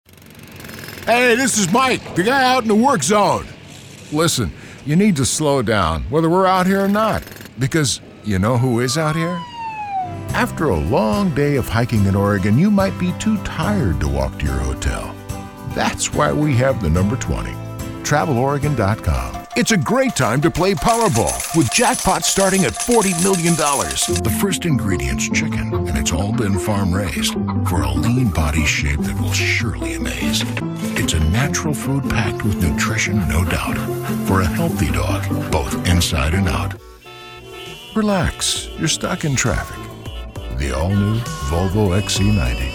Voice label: men commercial; Great big voice, deep and full of texture, boom chicka-boom.

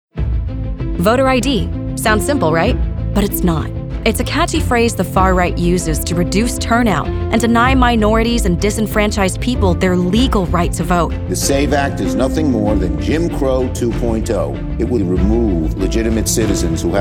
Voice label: women political; Warm, conversational, friendly, articulate, caring, genuine, sensual, luxurious, classy.

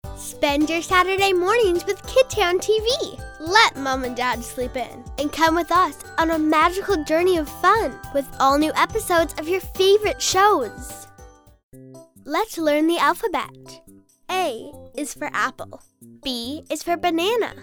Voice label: kids commercial

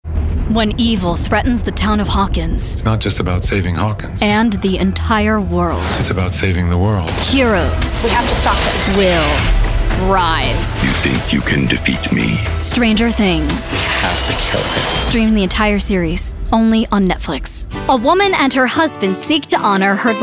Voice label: women promos; Youthful, Upbeat, Bright, Engaging, Perky, and Endearing.

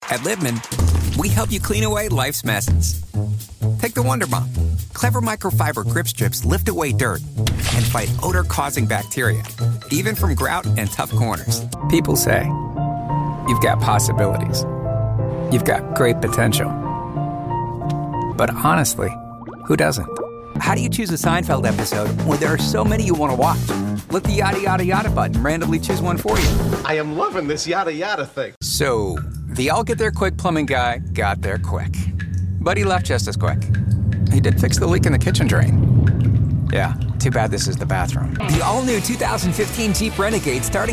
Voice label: men commercial; Conversational, –Real–, Natural, Guy Next Door.

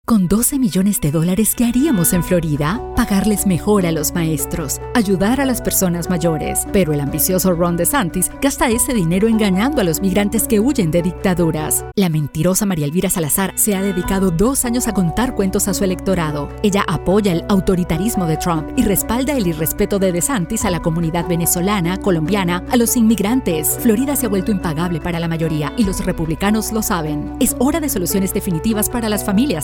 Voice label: spanish political

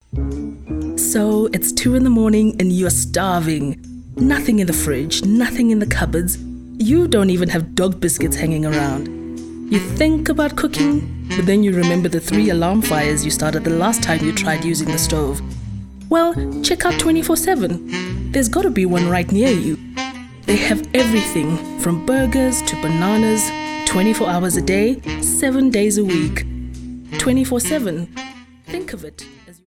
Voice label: south africa accented english; English speaking South African, with a polished finish.

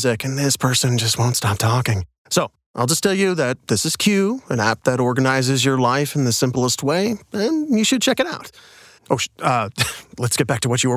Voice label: men commercial

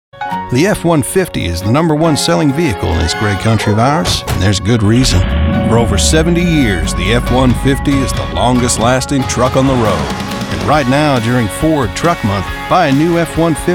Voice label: men other automotive

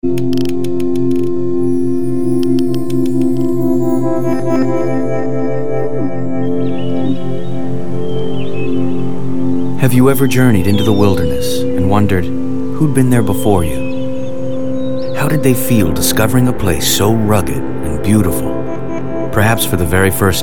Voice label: men narration

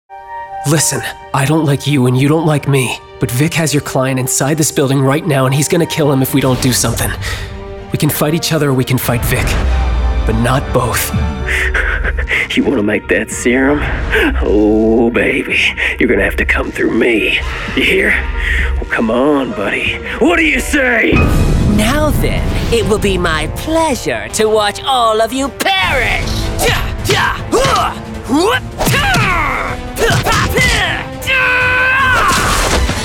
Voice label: men video games; Youthful, energetic, conversational, boyish, age range 17-27, smart, confident.

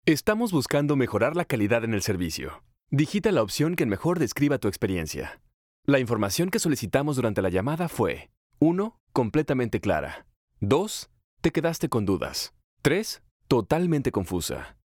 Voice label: spanish, telephone

